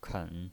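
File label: "ken", third tone, pinyin voice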